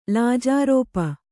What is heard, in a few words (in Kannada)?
"ಲಾಜಾರೋಪ", kannada